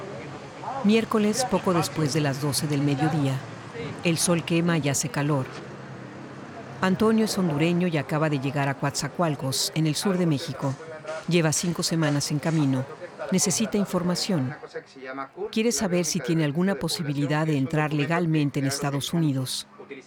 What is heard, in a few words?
Documentary Film; LOFT VOICES